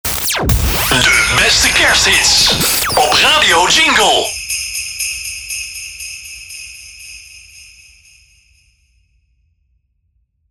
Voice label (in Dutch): Kerst SFX De beste kersthits op Radio Jingle (met SFX)